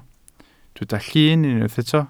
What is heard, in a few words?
"llun", Welsh